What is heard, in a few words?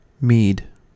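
"mead", American English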